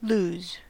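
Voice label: "lose", US English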